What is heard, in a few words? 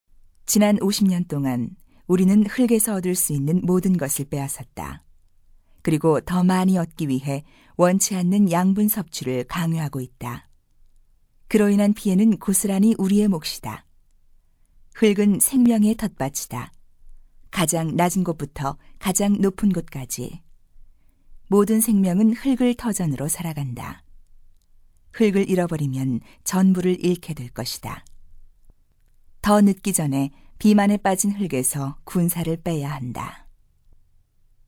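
Korean female; 여성 (Female); 나레이션; ARS; 캐릭터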